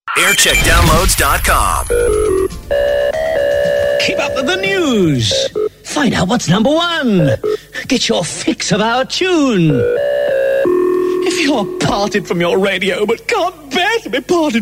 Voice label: Phone Promo; Radio 1 Down The Phone Promo (Nov 1990)